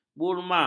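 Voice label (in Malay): "burma", Malay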